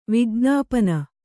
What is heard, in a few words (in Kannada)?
"ವಿಜ್ಞಾಪನೆ", Kannada